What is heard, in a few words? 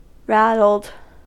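"rattled", US English; pronunciations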